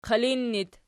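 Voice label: "qilin" locative, Archi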